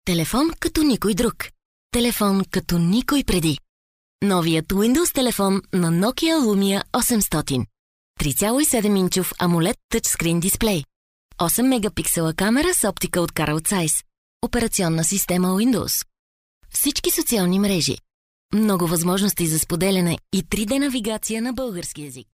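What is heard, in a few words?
Bulgarian, female, commercial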